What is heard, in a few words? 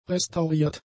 "restauriert", German; adjectives